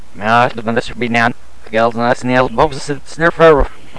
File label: Speaks Welsh